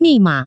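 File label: "mimaka", Taiwan Mandarin; bank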